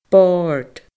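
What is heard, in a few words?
"bord", Scottish Gaelic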